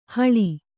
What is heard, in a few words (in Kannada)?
"ಹೀಳು", Kannada